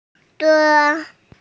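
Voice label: "de1", Mandarin Chinese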